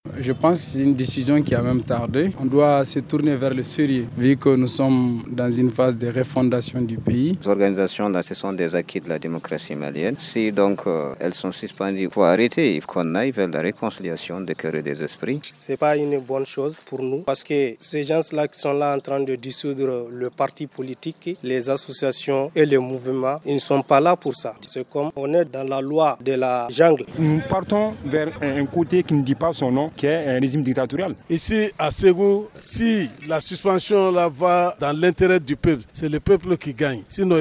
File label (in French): REACTION VOX POP; SUSPENSION PARTIS POLITIQUES; FR